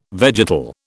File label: "vegetal", British